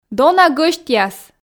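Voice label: "дона гӧсьтъяс", Komi, female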